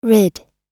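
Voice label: "rid", American English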